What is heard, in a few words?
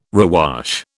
"rewash", British